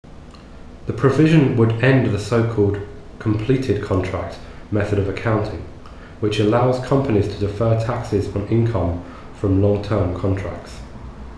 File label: reverb near